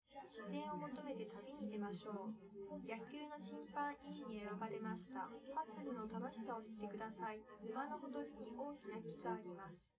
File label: female